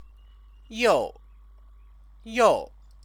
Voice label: "you", Chinese